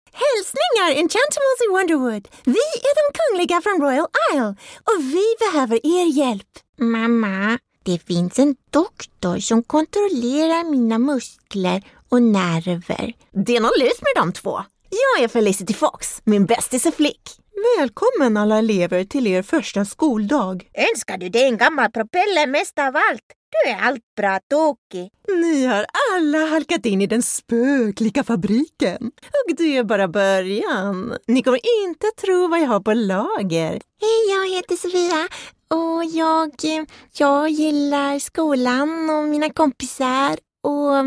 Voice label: swedish game dubbing

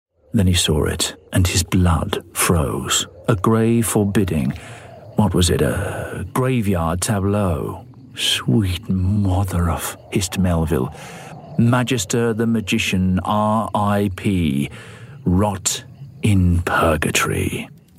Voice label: audiobook, Friendly Humorous Voice

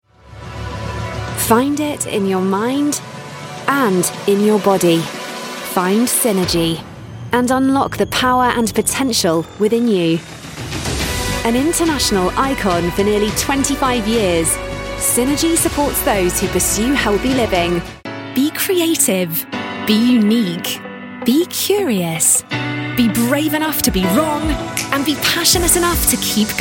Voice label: inspiring narration

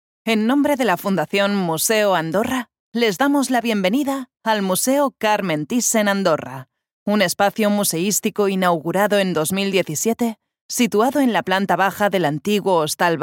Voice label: catalan audio tour spanish

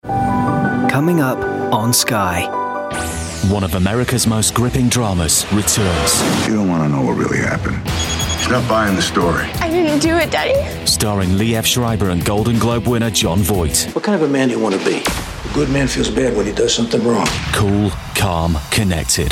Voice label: punchy sky promo

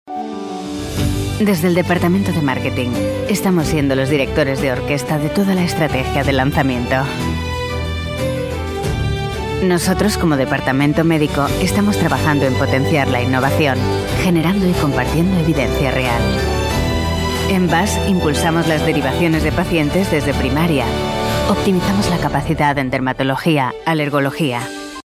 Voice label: spanish medical corporate

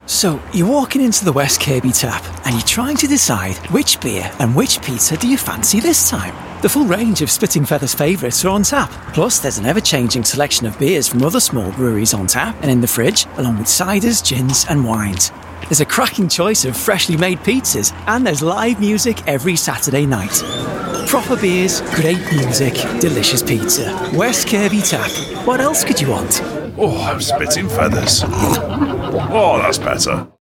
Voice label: merseyside

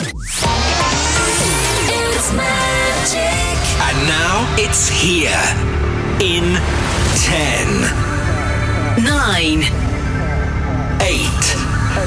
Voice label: radio imaging

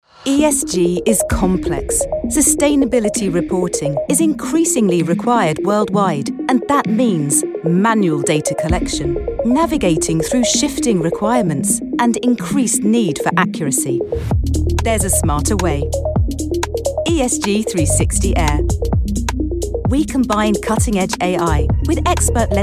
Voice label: British Voiceover, corporate

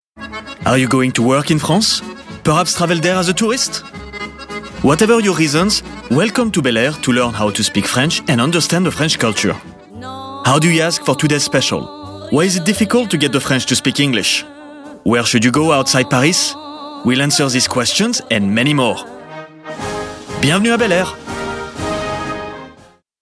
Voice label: french male commercial en w fr

